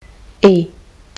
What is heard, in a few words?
short i, Kiwi English